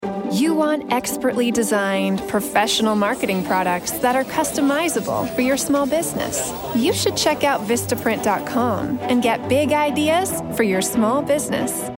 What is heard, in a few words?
Commercial; Vistaprint